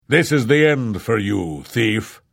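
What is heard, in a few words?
Thief, voice; Morrowind GOTY GOG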